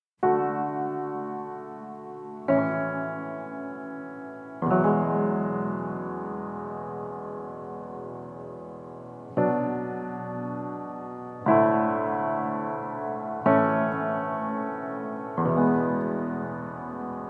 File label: Dictator Speech; LOFT VOICES